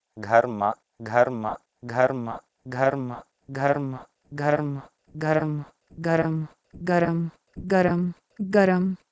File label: Sanskrit "gharma" to Urdu "garam"